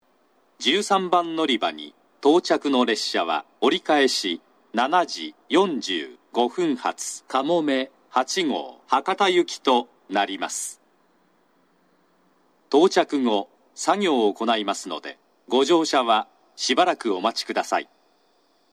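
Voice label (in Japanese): 13番のりば接近放送（博多行き）, 長崎 西九州新幹線ホーム 自動放送; 13番のりば接近放送（かもめ8号 博多行き）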